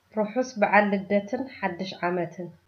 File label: christmas Tigrinya